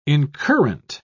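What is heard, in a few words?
"incurrent", British English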